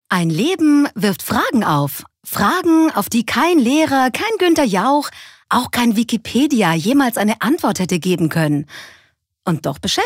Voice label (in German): Schauspiel Dynamisch